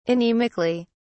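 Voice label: "anemically", British English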